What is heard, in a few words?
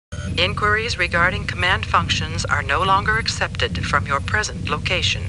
Computer Voice; Star Trek; StarTrekLCAR